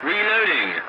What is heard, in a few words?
voice message reloading, english; War Thunder